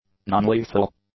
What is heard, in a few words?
"nonlethal", english; adjectives